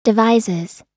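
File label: "devises", American English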